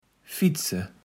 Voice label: "fietse", kaevels platt

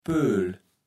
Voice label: "Pööl", Platt

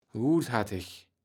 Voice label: "gutharteg", kaevels platt

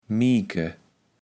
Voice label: "mieke", kaevels platt

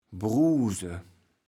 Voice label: "bruuse", kaevels platt

